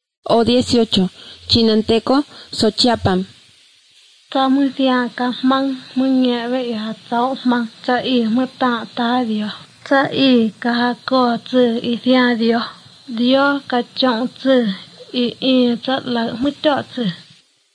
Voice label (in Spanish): spanish mexico